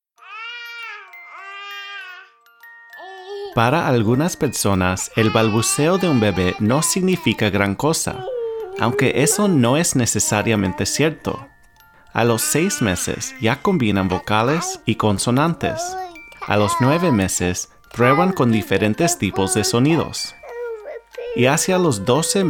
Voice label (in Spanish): Radio Span; Spanish